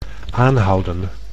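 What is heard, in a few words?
"aanhouden", Dutch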